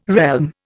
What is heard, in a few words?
"realm", Indian